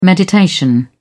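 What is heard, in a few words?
"meditation", English, British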